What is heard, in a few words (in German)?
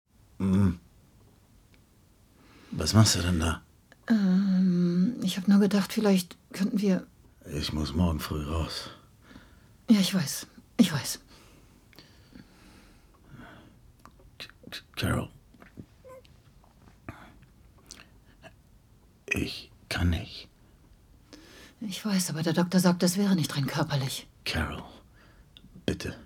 DE Synchron; Outside-In